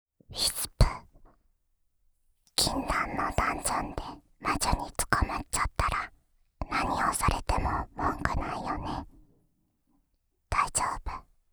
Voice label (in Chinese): 标题朗诵